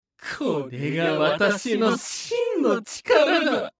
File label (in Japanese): 化物人ボイス, トランス状態1; 戦闘ボイス